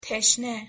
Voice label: "teshne", Persian